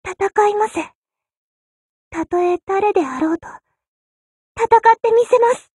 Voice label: warcry

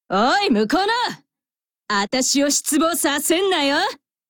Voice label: warcry

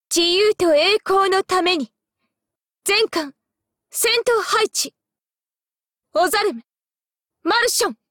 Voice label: warcry

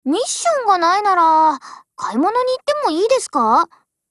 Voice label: DIALOGUE JP

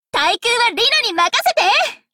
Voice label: warcry

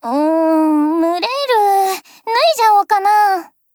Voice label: Dialogue jp

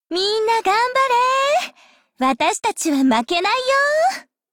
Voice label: warcry

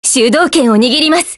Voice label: ATTACK, JP

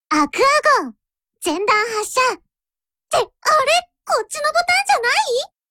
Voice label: warcry

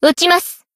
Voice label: Battle Shout; Ako Dress

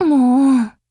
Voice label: LOW MOOD JP

